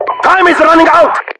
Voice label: times up, voiceovers english

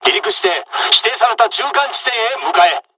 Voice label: dialogs japanese; War Thunder

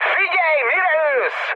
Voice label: player friendly fire, mood high, speech hun; War Thunder

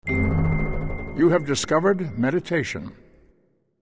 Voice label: Meditation; Civ4

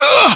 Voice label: pain, voiceovers english

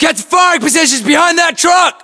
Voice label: order cover truck, US; localized english; battlechatter

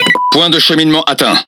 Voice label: Speech Autopilot Checkpoint, FR; World Of Warships

